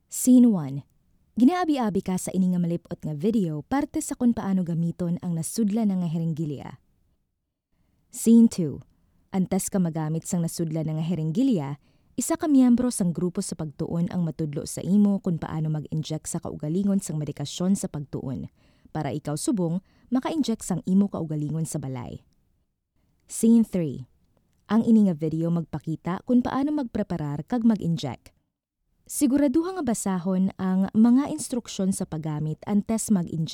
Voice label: female hiligaynon voice over narrative